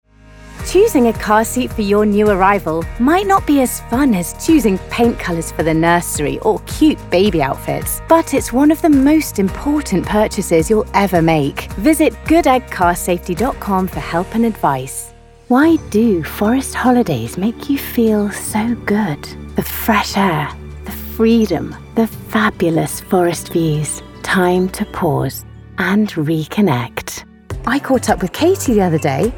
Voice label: Commercial